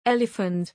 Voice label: "Elephant", English